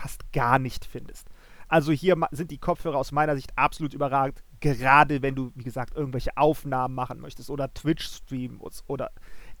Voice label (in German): Mikrofon MMX 300 Pro Test; Beyerdynamic MMX 300 Pro; Gaming-Headset; Beyerdynamic MMX 300 Pro: Detaillierter Test und Erfahrungen zum Nachfolger der MMX 300